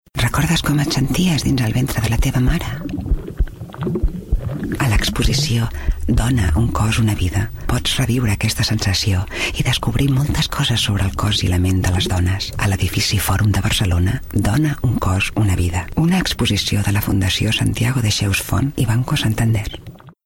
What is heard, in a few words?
female catalan event comercial; ideasonora